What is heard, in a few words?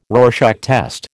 "Rorschach test", American